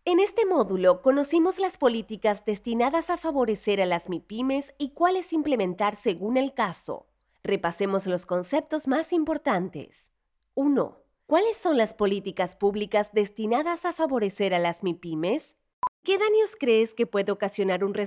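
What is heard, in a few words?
e-learning, Argentine Spanish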